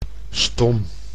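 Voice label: "stom", Dutch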